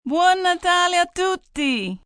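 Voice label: Merry Xmas Italian F, female, Italian; Holiday Sound Effects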